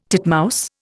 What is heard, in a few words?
"titmouse", Indian